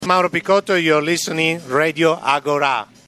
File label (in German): Radio Ansage